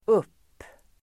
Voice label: "upp", swedish

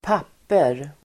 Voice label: "papper", Swedish

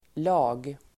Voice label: "lag", Swedish

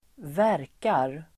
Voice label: "verkar", Swedish

